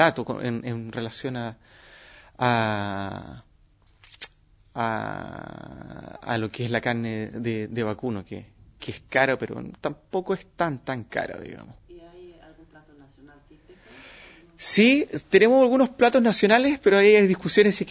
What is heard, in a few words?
Chile, dialekt